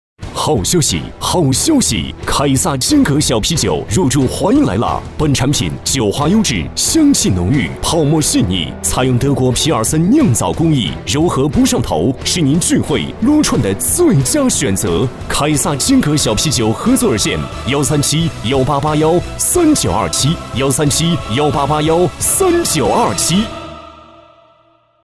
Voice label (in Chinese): 男 广告 激情促销